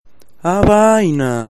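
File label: "Ah Vaina", Spanish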